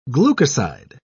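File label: "glucoside", English, British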